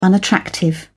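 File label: "unattractive", British English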